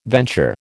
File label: "venture", American